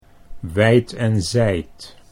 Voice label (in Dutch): "wijd en zijd", Dutch